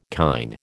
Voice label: "kine", American

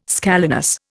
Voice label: "scalenus", Indian

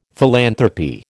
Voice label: "philanthropy", American

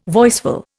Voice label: "voiceful", Indian

